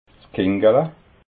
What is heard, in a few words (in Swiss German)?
"kinggala", alsace